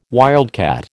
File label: "wildcat", American